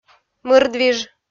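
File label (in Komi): "мырдвиж", Komi, female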